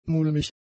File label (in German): "mulmig", German; adjectives